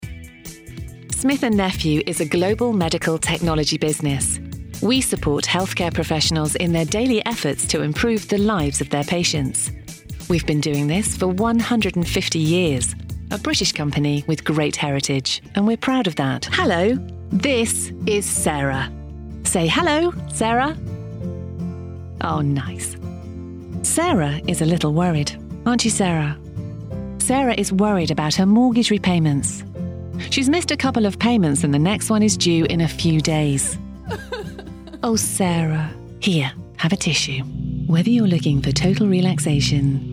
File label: Corporate